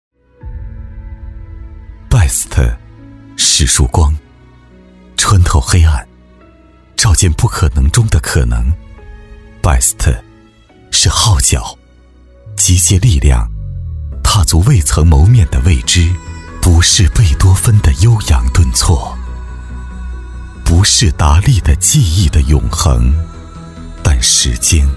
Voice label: 男 广告 微电影品质

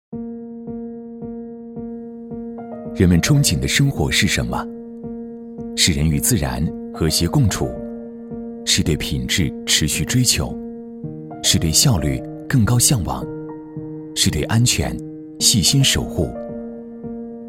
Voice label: 男 专题 科技时尚; 银佳集团

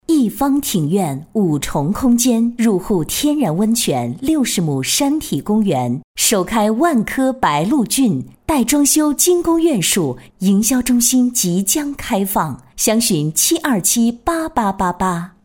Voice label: 女 广告 干音; 万科白鹭郡

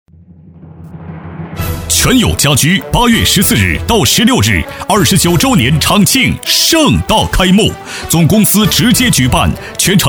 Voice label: 男 促销 家居

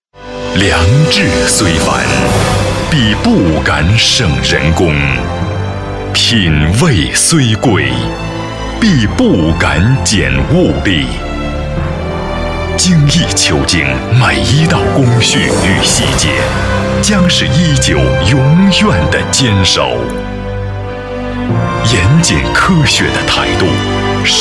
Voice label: 男 广告

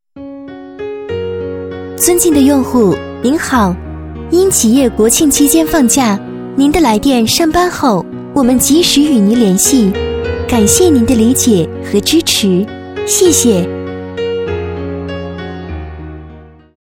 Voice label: 女7-彩铃-企业彩铃, 女

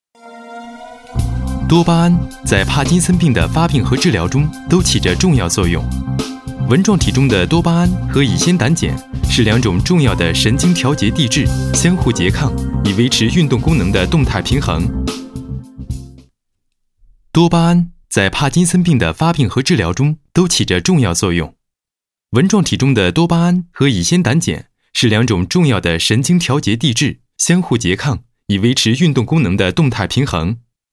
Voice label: 男 专题 产品解说; 产品解说多巴胺

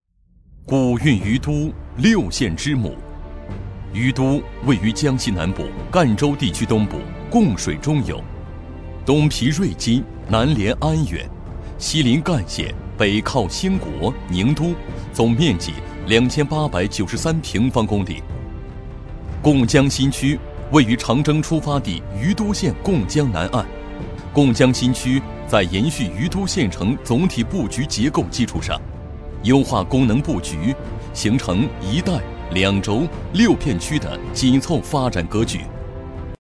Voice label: 男 项目介绍 城市规划; 于都

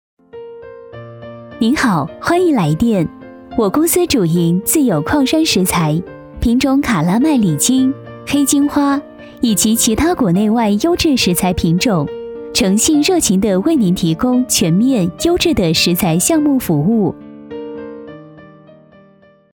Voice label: 彩铃; 矿山石材

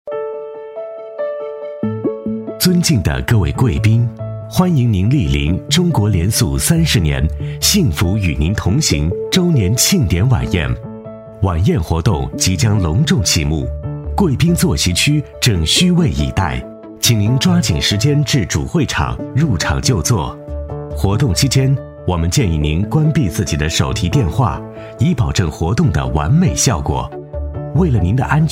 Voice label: 男 晚会开场 会场温馨提示; 联塑30年庆典

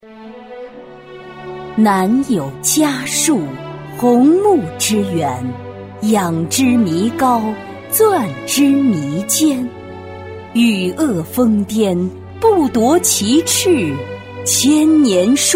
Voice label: 女 专题 大气专题

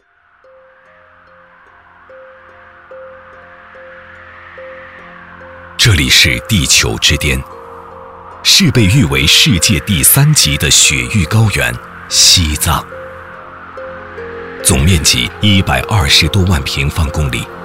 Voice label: 男 纪录片; 雪域铸丰碑

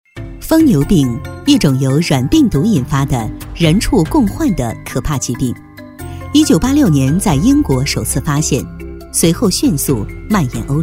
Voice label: 女-专题-医疗器械UDI及追溯宣传片

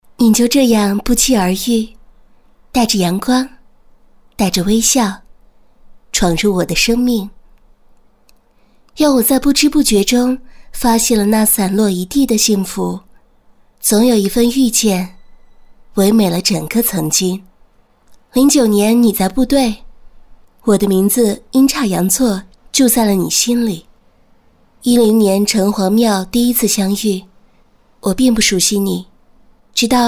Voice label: 女 旁白; 你就这样不期而至

